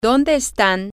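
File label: where are they, spanish, female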